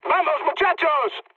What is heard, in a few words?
enemy detected, mood med, speech; War Thunder